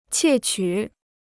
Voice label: "窃取", Chinese

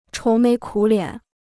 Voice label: "愁眉苦脸", Chinese

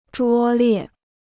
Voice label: "拙劣", Chinese

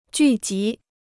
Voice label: "聚集", Chinese

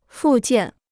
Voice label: "附件", Chinese

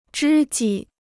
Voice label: "知己", Chinese

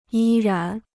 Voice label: "依然", Chinese

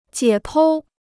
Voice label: "解剖", Chinese

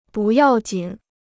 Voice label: "不要紧", Chinese

